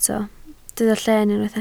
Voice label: "lleen", Welsh